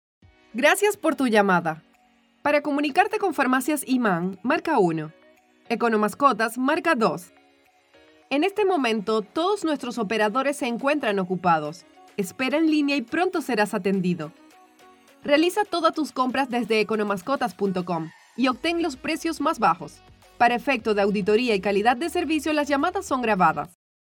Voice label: Spanish Latin

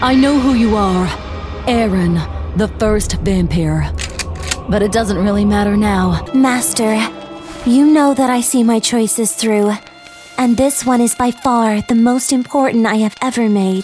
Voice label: English USA

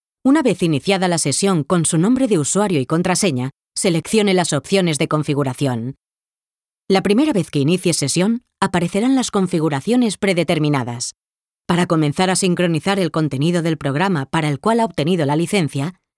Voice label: Spanish Spain

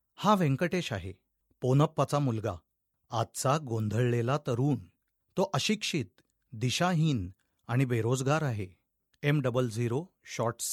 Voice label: Hindi Native

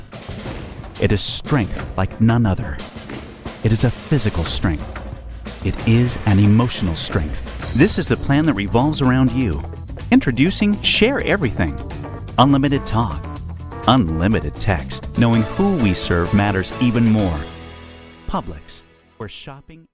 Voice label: English USA

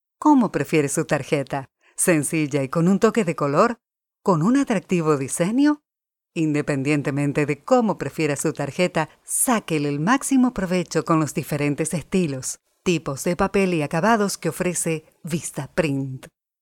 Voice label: Spanish Latin

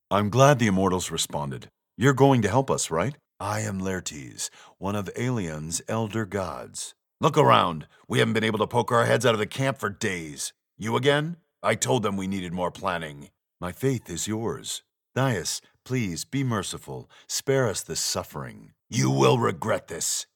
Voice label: English USA

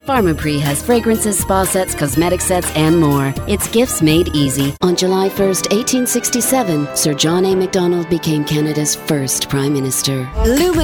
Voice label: English Canada

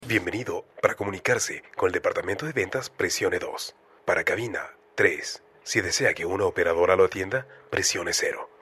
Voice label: Spanish Neutral

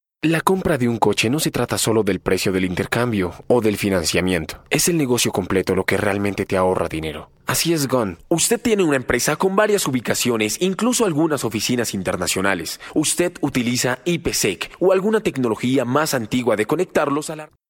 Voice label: Spanish Latin

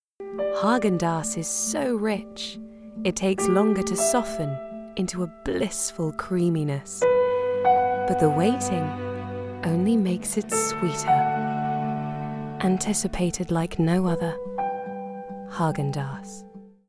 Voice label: English United Kingdom

